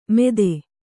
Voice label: "ಮೆದೆ", kannada

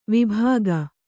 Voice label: "ವೈಭೋಗ", kannada

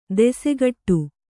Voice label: "ದಾಸಗೌಡ", Kannada

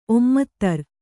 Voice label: "ಒಮ್ಮತ್ತರ್", Kannada

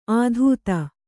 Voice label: "ಅತೀತ", Kannada

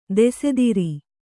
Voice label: "ದೋಸ್ತಾರ", Kannada

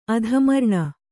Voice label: "ಅಧಮರ್ಣ", kannada

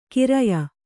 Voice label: "ಖರೆಯ", Kannada

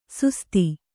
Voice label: "ಸುಸ್ತಿ", Kannada

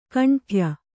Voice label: "ಖಂಡೆಯ", Kannada

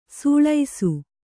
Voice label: "ಸೊಳಸ", Kannada

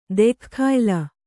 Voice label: "ದೆಖ್ಖಾಯ್ಲ", kannada

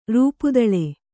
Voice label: "ರೂಪುದಳೆ", Kannada

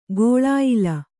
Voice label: "ಘೋಳಾಯ್ಲ", Kannada

